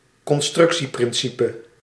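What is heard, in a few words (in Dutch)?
"constructieprincipe", Dutch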